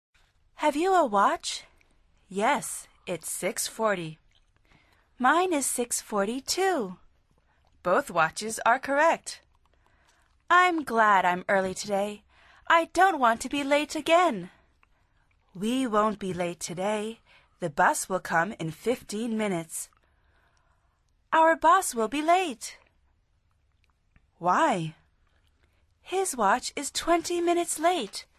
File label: dialog